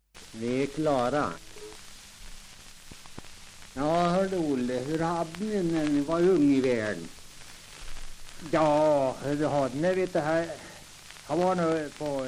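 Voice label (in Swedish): Tuna Medelpad, dialekter, svenska sagor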